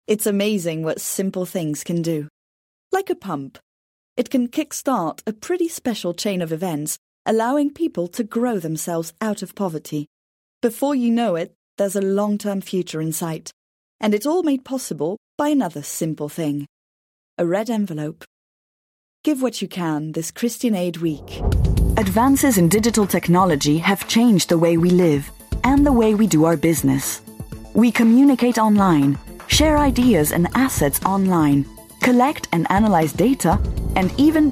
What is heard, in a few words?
English European accent